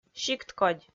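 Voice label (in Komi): "сикткодь", Komi, female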